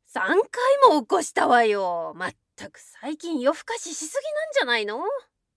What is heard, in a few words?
female